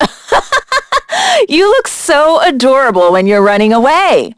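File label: Vox Skill, voices heroes English; kingsraid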